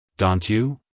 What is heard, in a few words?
"Dont you", US, male